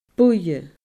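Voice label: "buidhe", Scottish Gaelic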